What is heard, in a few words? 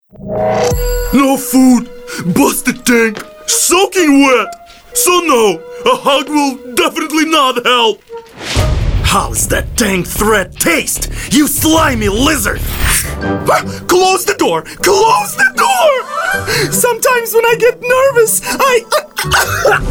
Animation Charecters, Russian Voice Over